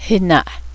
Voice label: goose, Cheyenne; héna'e